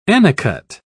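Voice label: "annicut", British English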